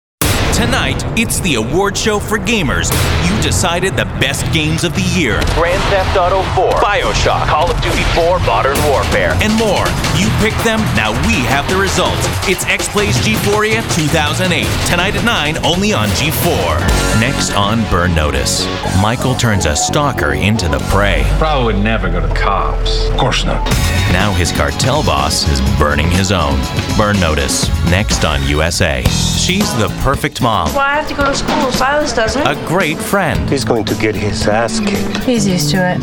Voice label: Male, promos